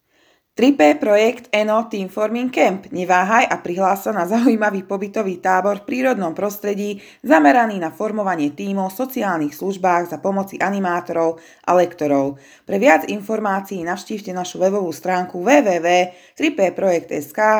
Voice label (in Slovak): Reklama; reklamne videa